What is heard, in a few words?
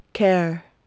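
"care", English word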